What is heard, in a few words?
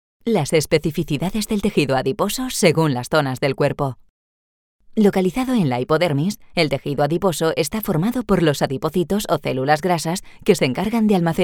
Spanish Video Narration